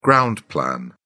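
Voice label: "ground plan", British English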